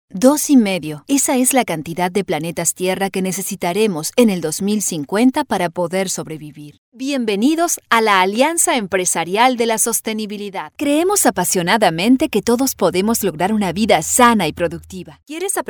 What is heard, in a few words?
business latam